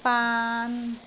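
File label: "baan3", Cantonese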